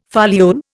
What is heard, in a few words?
"foliole", Indian